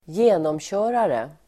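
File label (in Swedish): "genomkörare", Swedish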